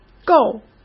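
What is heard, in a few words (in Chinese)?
"够", Mandarin Chinese; hsk; chinese-flashcards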